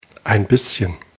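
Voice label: "bisschen", German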